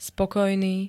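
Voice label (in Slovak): "spokojný", Slovak; prejavujúci uspokojenie, súhlas, vyrovnaný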